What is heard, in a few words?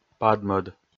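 "падмӧд", Komi, male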